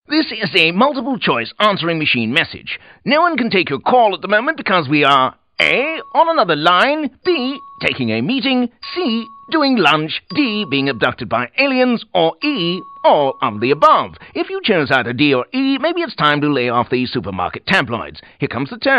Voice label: Answering Machine